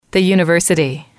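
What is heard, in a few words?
"the university", English, female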